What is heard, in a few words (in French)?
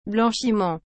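"blanchiment", French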